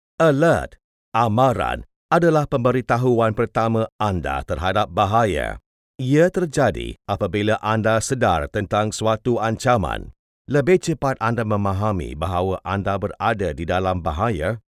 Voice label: Malay e-Learning

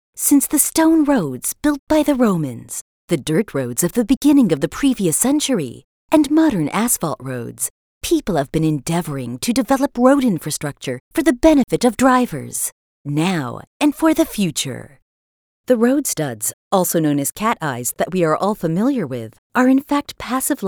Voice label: Corporate

